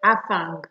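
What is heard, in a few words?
"afang", Nigerian Pidgin, female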